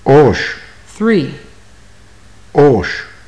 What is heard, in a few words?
numbers, maya